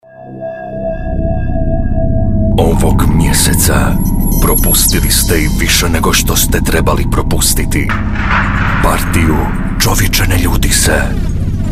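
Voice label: croatian male commercial; voice demos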